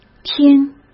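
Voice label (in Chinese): "添", Mandarin Chinese; chinese flashcards; hsk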